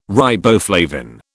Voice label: "riboflavin", British

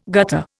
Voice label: "gutter", Indian

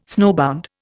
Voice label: "snowbound", Indian

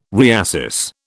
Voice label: "reassess", British

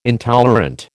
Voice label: "intolerant", American

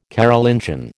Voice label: "Carolingian", American

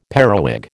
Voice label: "periwig", American

